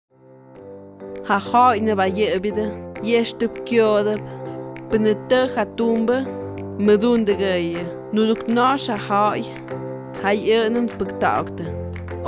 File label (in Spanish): Spot mixe; Campanas